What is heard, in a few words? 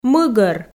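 "мыгӧр", Komi, female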